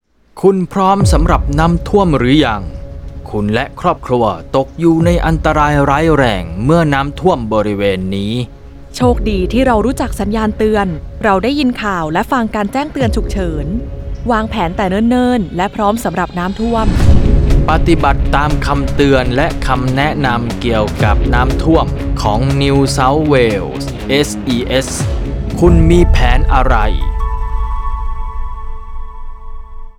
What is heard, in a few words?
radio ad thai; whats your plan